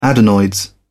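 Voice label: "adenoids", British English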